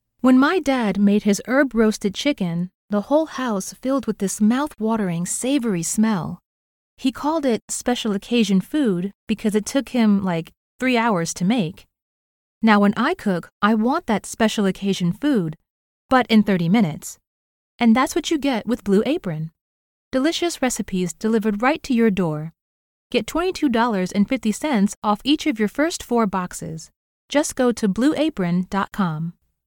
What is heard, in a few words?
Radio Ad Sample